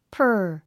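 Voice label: "purr", English word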